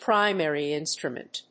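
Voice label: "primary instrument", English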